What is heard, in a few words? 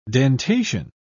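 "dentation", British English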